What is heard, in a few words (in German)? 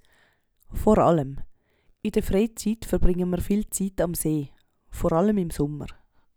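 vor allem, Swiss German; Hauptwortschatz; Wortschatz Kapitel 8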